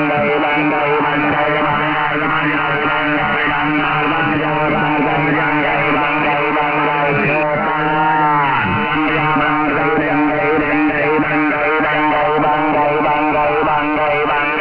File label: pirates on 7020 kHz LSB; Indonesian